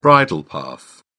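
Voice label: "bridle path", English, British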